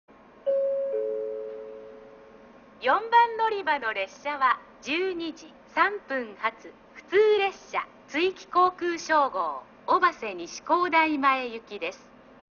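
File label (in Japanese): 4番のりば停車中案内放送, 中津駅; 普通・築城航空ショー号 小波瀬西工大前行き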